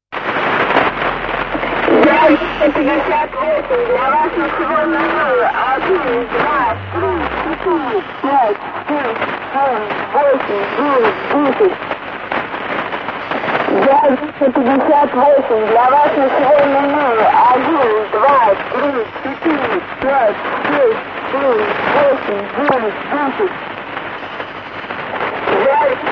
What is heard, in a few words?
announcement female